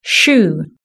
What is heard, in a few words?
"shoe", british english